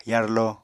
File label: "yarlo", Apatani